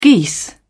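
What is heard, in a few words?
"geese", British English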